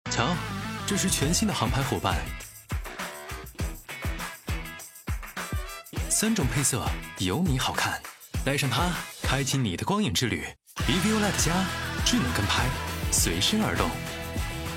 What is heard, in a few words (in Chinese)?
男 宣传片 科技前卫; 道通无人机